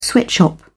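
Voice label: "sweatshop", British English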